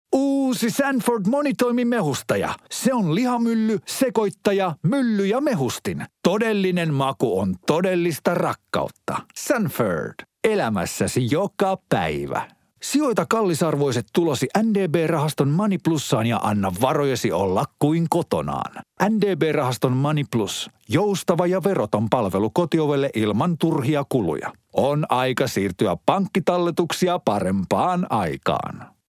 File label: male finnish voice over sales